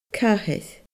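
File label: "cathair", Scottish Gaelic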